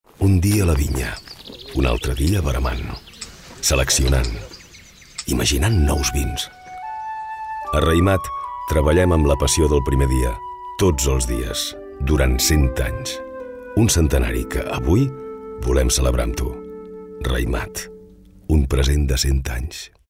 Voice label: male catalan wine comercial; ideasonora